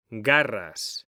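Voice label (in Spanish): "garras", Spanish, male; mekanta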